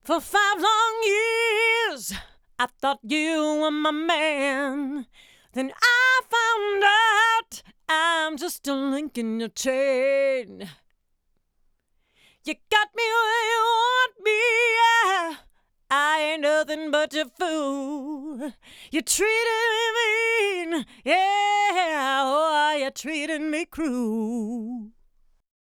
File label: Voc Comp Loud